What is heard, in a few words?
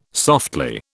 "softly", British